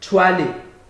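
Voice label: "twale", Nigerian Pidgin, male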